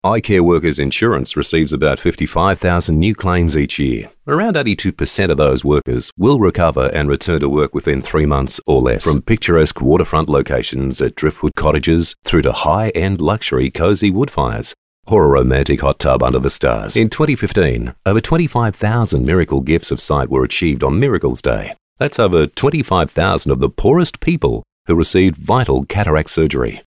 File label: Natural